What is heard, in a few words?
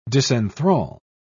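"disenthrall", British English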